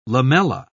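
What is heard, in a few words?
"lamella", British English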